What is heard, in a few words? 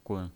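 "gun", Mandarin Chinese, neutral tone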